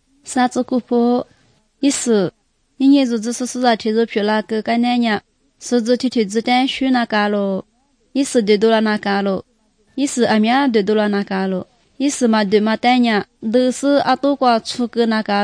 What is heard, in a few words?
good news lisu southern, drama